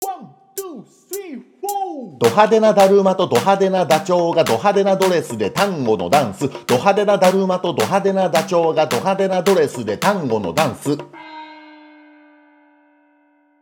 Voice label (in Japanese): 早口言葉リズム（中級編）